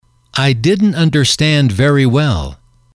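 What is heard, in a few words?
"I didn't understand very well", English, male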